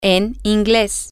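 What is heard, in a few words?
Spanish, female; in English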